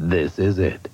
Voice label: "this is it", announcer